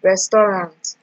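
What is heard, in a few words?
"resturant", Nigerian Pidgin, female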